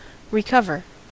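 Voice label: "recover", American English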